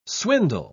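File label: "swindle", English word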